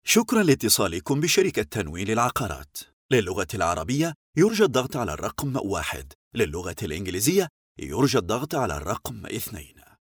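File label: Arabic, male, e-learning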